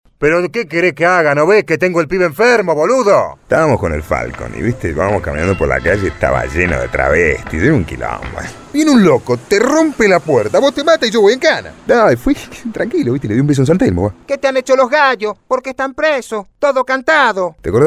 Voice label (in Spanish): ACTING; Hombre; PORTEСO